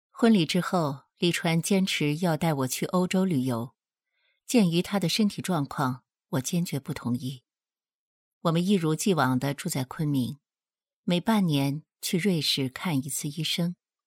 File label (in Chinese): 御姐音